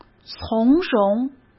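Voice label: "从容", Mandarin Chinese; chinese-flashcards; hsk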